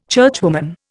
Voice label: "churchwoman", Indian